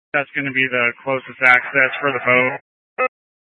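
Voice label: Incident Tactical 1, Scanner audio recording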